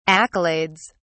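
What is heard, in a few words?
"accolades", British English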